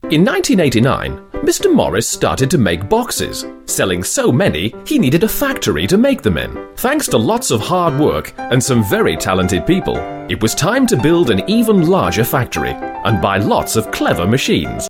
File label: CORPORATE HUMOROUS EXPLAINER